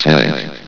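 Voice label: "tank", male synthesized announcer voice; hlvox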